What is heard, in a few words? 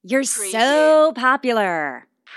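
Woman Says You're So Popular Sarcastic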